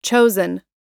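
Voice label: "chosen", American English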